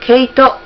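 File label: "keito", Japanese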